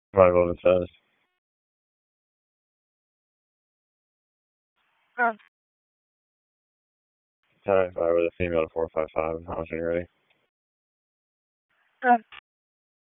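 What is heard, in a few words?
Raleigh Police Southeast District Dispatch, p25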